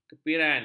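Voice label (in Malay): "kepiran", Malay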